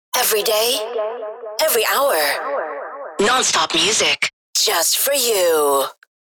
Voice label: Vocals radio voice sweeper every day every hour